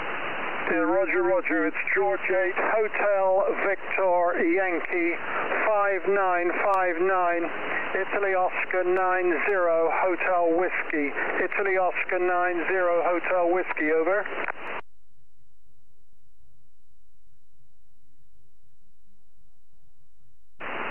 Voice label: qso 4m